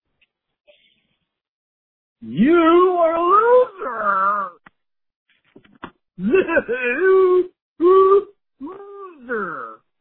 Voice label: voicemail